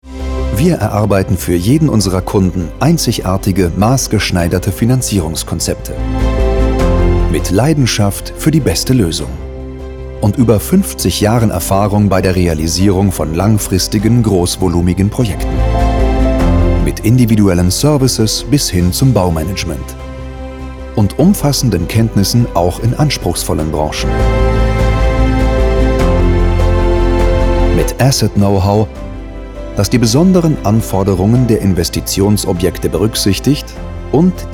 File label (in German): imagefilm